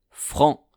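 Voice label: "franc", French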